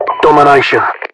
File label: title domination, UK; english; voiceovers; mpvoice